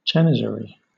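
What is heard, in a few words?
"janissary", British English; pronunciations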